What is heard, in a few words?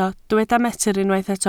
"metr", Welsh